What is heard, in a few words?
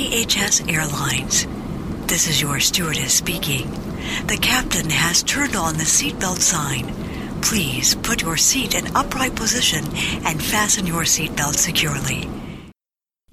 Accents